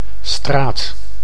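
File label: "straat", Dutch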